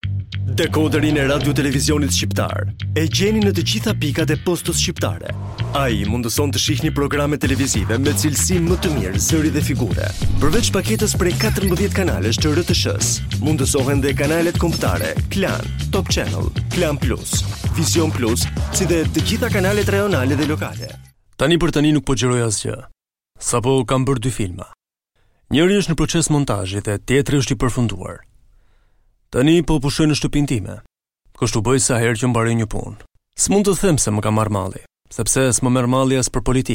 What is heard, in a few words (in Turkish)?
Arnavutluk Erkek; arnavutca seslendirme